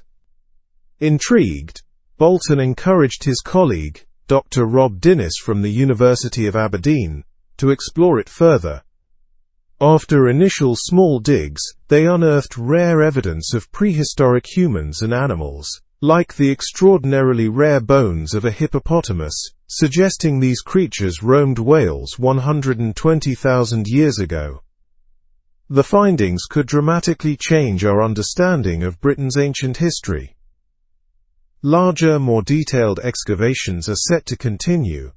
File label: learn english through news upper intermediate; holiday in wales unearths ancient findings